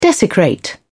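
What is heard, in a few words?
"desecrate", British English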